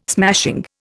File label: "smashing", Indian